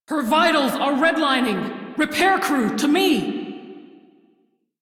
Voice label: Crew Ship Low, Female; Railjack Crew; Steel Meridian

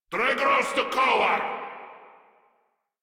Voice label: Attack, Grineer Male; Warframe

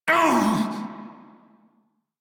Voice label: Pain Male en; Dialog; Warframe; Railjack Crew; Red Veil

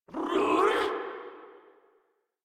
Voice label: Surprised Grineer Female; Warframe

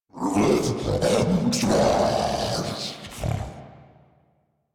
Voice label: Spawn Grineer Ghoul Devourer, en

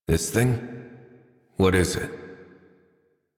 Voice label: Adult, en, Dialog; Rabbit; Warframe; Duviri Paradox Quest

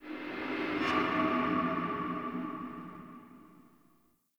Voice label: Crypt Warrior Echo A; Ambience; One Offs; Champion Crypt

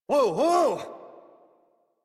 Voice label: Horse Stop Adult; Warframe; Duviri

